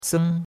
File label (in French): "seng1", chinois; Cours de Chinois